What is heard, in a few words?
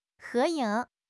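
"he2 ying3", Chinese; 汉语口语新时代-初级下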